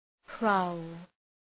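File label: "prowl", English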